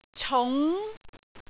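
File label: "cung2", Cantonese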